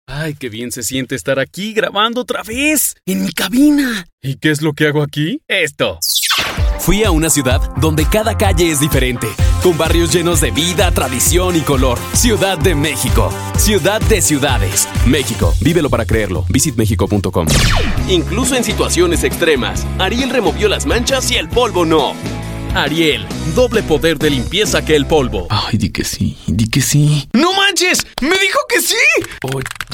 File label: Latin Spanish Mexico